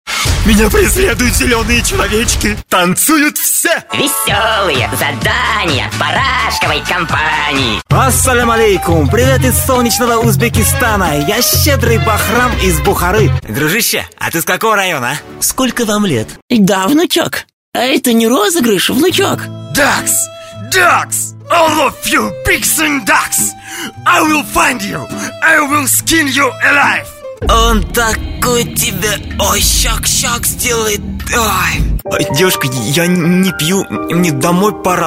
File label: russian animation